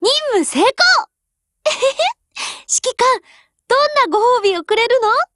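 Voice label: WIN Japanese; GF Alarm; UMP9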